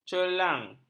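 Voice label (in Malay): "cerlang", Malay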